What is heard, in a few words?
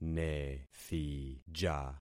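"nethija", concatenated syllables; Affixing experiment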